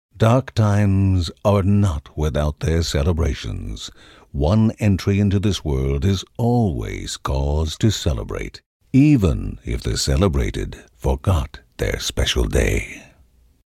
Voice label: Birthday Narration; children of morta; Procedural Events

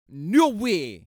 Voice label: Voices Jamaican No Way

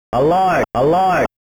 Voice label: Voice Alert

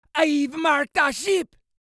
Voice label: Voices Jamaican I've Marked The Ship

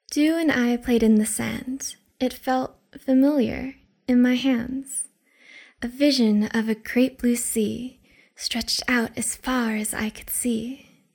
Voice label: Poetry

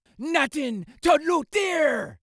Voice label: Voices Jamaican Nothing To Loot There

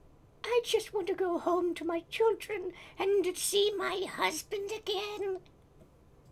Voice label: Voices Female Idle

